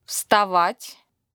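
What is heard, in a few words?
"to get up", Russian vocabulary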